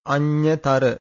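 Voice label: "aññatara", Pali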